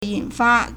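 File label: "引发 yǐnfā", Chinese; 引發; verb; to lead to; to trigger; to initiate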